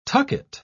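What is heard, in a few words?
"tucket", British English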